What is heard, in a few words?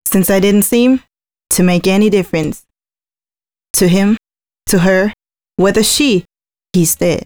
female c#132bpm, spoken hooks